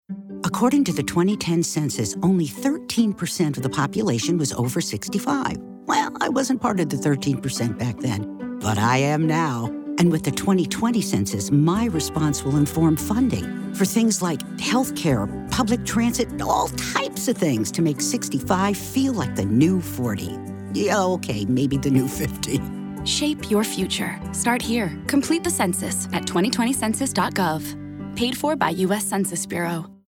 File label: Radio